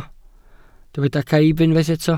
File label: "caib", Welsh